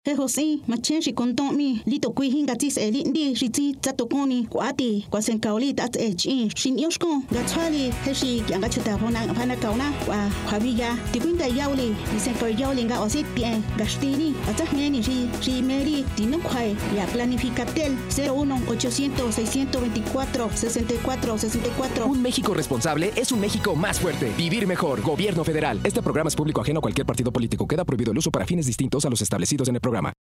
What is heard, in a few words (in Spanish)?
Mazateco